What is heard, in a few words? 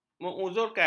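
"menguzurkan", Malay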